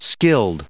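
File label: "skilled", US English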